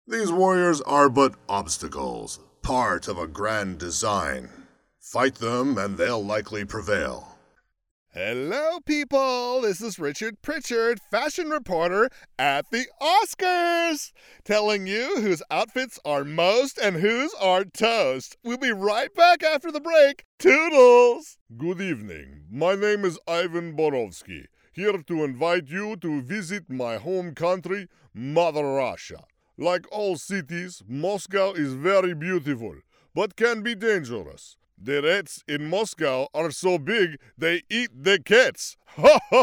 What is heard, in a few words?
Accent and Character